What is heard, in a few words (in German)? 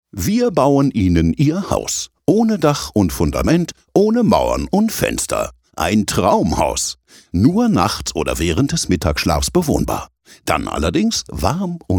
Werbung Freundlich